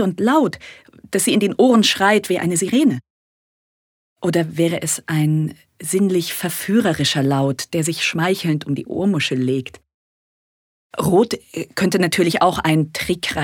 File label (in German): Farbe Rot Freundlich